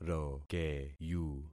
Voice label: "rokeyu", concat syllables; Affixing experiment